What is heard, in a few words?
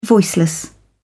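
"voiceless", British English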